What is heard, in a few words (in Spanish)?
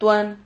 "tuan", Silabas